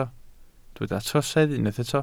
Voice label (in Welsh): "trosedd", Welsh